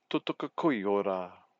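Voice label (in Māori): "totoka koiora", Māori word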